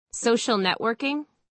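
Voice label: "social networking site", American English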